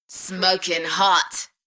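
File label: British female Smokin hot; party; beanstalk audio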